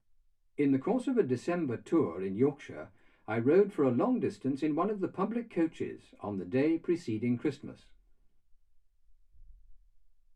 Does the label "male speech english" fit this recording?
Yes